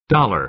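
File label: "dollar", American English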